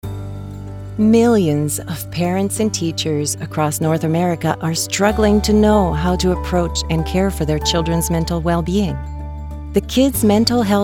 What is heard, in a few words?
Corporate; Kids Mental Health Foundation